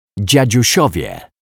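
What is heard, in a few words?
"dziadziusiowie", Polish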